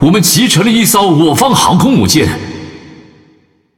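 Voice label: Chinese (Taiwan) Speech Destroy Ally Aircarrier; World Of Warships; ZH TW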